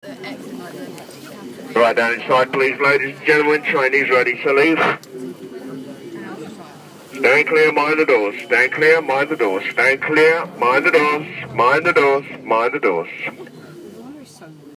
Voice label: Line Victoria Mind The Doors, Underground